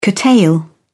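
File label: "curtail", British English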